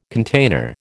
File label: "container", American